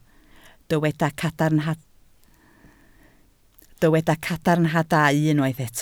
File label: "cadarnhadau", Welsh